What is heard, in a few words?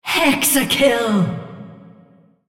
female On Champion Hexa Kill